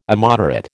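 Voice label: "immoderate", American English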